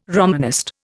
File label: "Romanist", Indian